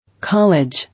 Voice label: "College", English

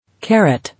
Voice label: "Carat", English

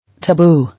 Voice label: "Taboo", English

